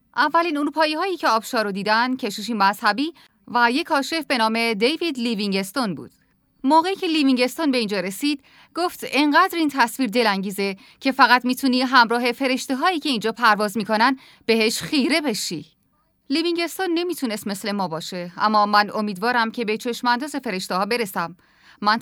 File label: documentary